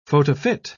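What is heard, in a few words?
"photofit", British English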